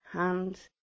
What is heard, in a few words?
"hand", British Liverpool accent